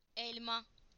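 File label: "apple", Turkish; PictureDictionary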